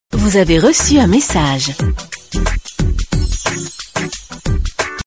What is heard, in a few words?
french message ringtone; iphone 8 plus